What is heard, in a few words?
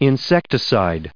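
"insecticide", US English pronunciation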